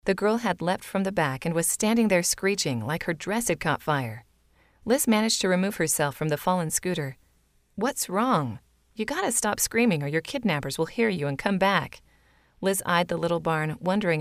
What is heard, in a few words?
Audiobook